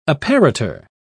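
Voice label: "apparitor", British English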